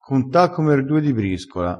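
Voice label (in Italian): "conta", dialettale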